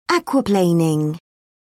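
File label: "aquaplaning", British English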